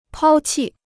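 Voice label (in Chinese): "抛弃" Chinese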